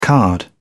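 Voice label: "card", British English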